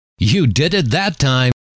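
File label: you Did It That Time, male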